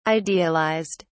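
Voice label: "idealised", British English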